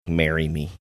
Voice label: quietly saying marry me